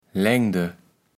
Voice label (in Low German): "Lengde", kaevels platt